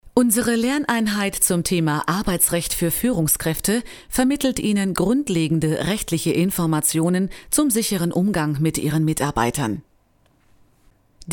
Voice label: E-Learning